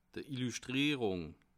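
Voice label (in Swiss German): "illuschtrierung", Bas Rhin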